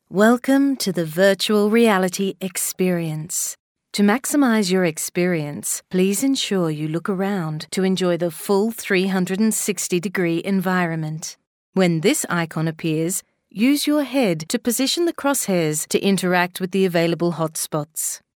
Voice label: female australian voice over narrative